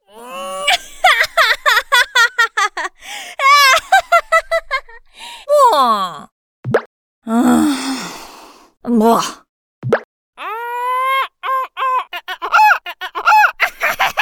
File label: Mandarin China Character Voices Game; Say No More